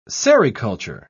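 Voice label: "sericulture", British English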